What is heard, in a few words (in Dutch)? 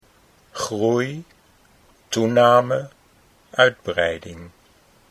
groei toename, Dutch